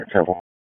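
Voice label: Police Spec Ops Tac, radio; Raleigh; wake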